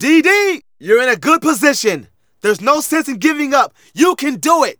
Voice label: Narration